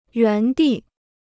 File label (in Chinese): "原地", Chinese